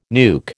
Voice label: "nuke", American